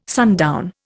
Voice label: "sundown", Indian

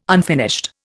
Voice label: "unfinished", Indian

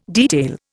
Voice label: "detail", Indian

